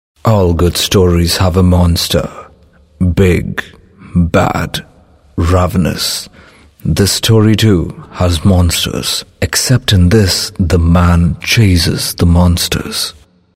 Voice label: English, male voice